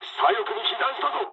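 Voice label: Japanese left wing mood med; War Thunder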